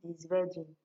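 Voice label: "dis virgin", Nigerian Pidgin, female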